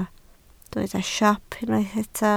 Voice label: "siop", Welsh